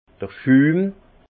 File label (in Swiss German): "schum", alsace; vin; mousse